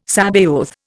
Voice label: "Sabaoth", Indian